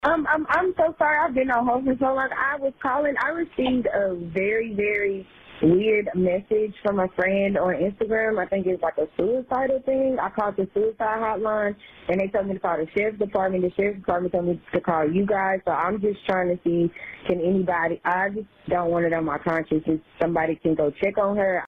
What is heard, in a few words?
911 call; AUDREY HALE